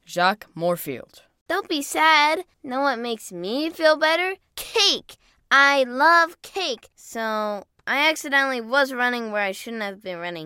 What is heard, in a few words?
Animation